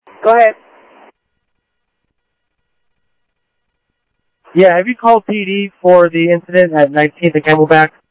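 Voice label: Light Rail Security Light Rail, scanner audio trunk; ThompsonPeakHigh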